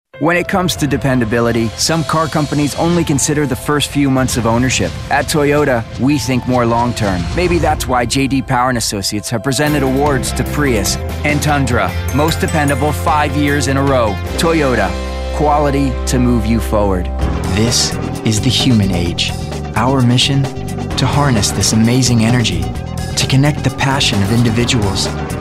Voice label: commercial